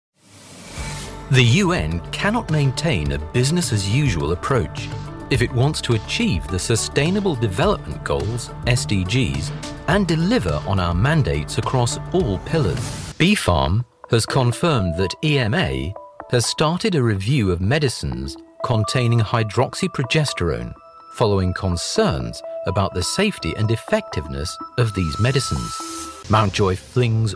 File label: Narration